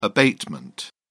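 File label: "abatement", British English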